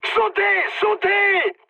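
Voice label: French bailout mood high; War Thunder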